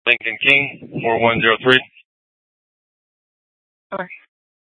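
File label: Police Department North Dispatch, scanner; Regional Wireless Cooperative (RWC) - Simulcast H- Scottsdale; Scottsdale PD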